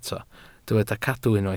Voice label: "cadw", Welsh

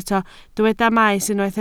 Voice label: "maes", Welsh

